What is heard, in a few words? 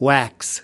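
"wax", US English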